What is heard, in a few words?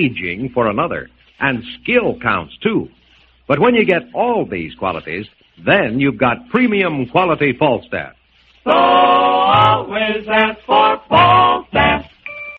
beer commercial; big d jamboree; falstaff beer